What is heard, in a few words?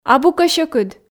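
"абу кӧ сьӧкыд", Komi, female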